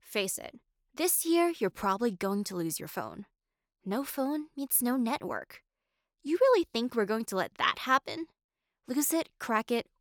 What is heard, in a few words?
Commercial Samples